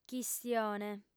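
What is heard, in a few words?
"chistione", female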